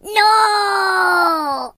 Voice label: Nooo, Pirate voices; hedgewars